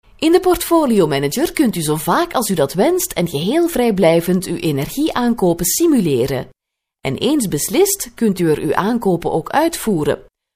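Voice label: flemish female narration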